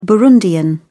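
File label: "burundian", British English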